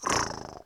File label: gator indifferent